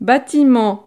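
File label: "batiment", French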